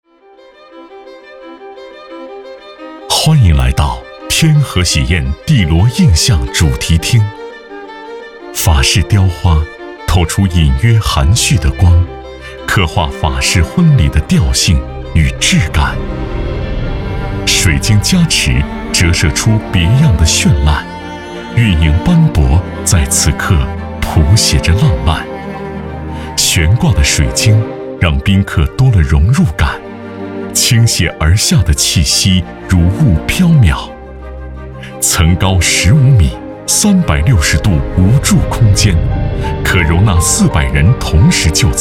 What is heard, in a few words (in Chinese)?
男 婚礼宴会厅 唯美浪漫风格